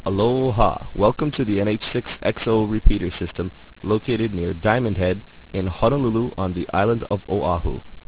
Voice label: echolink repeater; hawaii

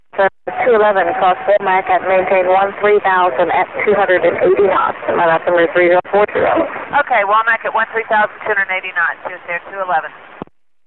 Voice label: atc arrival; atlanta

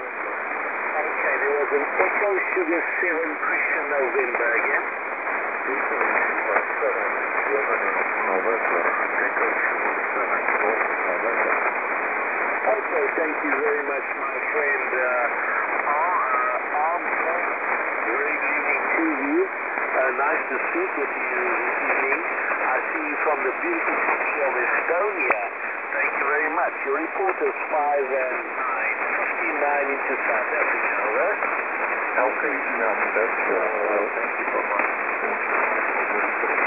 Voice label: qso 14205kz

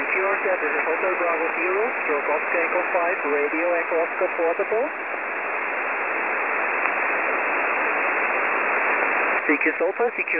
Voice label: qso; 14285khz

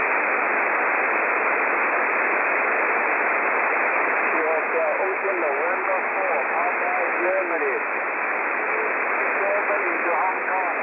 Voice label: qso 21293 khz, Radio

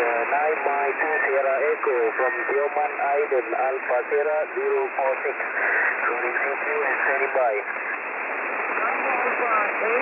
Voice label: qso; 14270khz

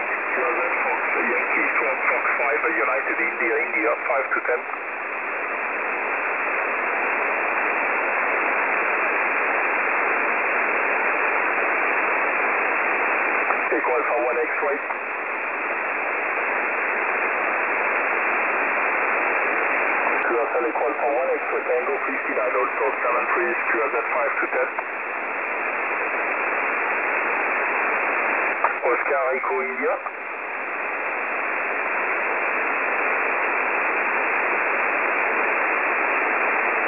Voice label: qso 21280khz

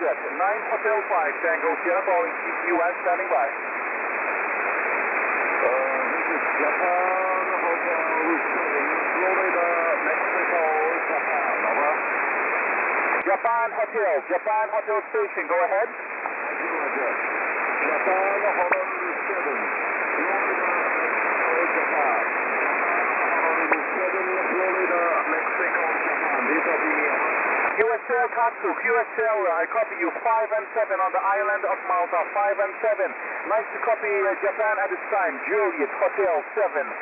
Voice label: QSO 18160 kHz

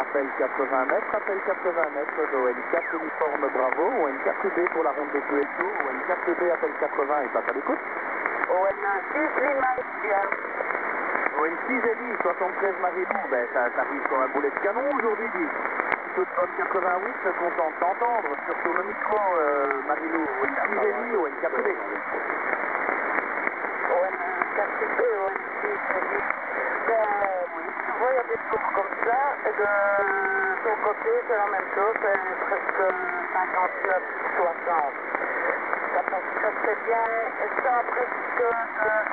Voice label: qso yl; 3700khz

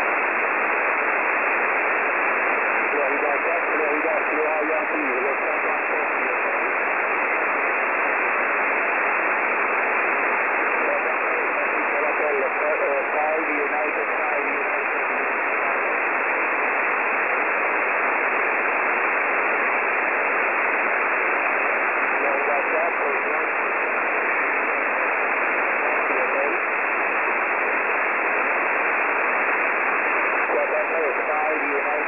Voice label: qso 21270khz

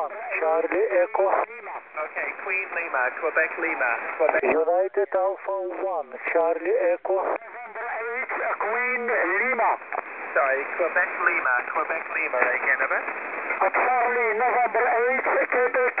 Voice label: qrm calling asia; 14185khz

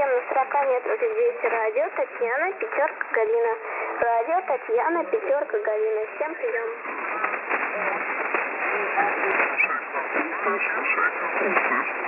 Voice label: yl cq; 7091.5khz

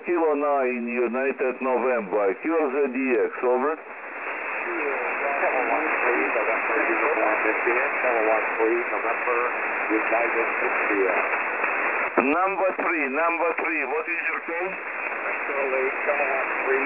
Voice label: qso; 14240khz

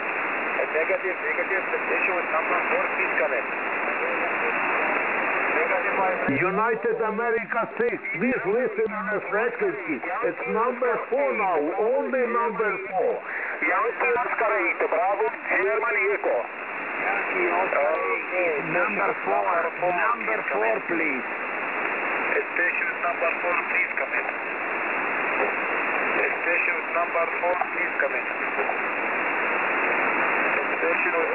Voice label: QSO pileup khaos QRT 14264 kHz

